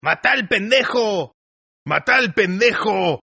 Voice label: Tough guy Spanish, Tough Hispanic Male Saying, Yelling; Saying "Get That Shithead!" In Spanish-2 Takes